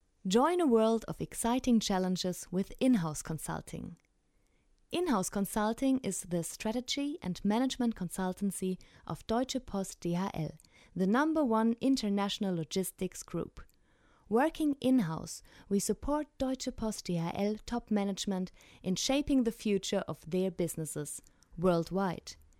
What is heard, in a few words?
english; dhl